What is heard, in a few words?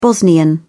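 "bosnian", British English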